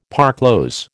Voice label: "parclose", American